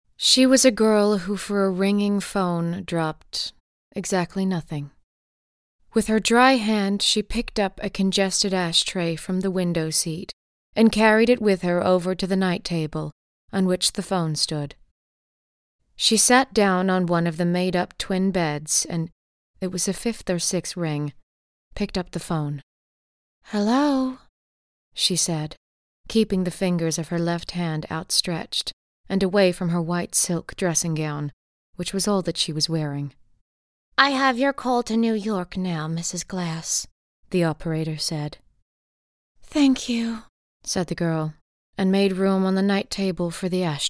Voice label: US accent